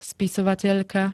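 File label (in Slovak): "spisovateľka", Slovak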